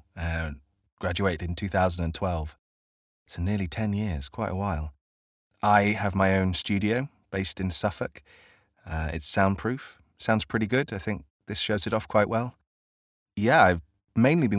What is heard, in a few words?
Natural Voice